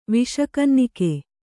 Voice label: "ವಿಷಕನ್ನಿಕೆ", kannada